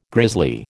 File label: "grizzly", American